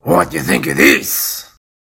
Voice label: orc trades dealer